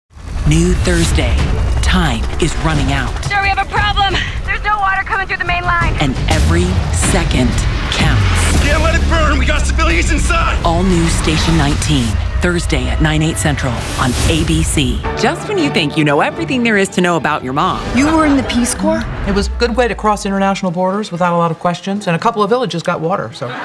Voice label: Promos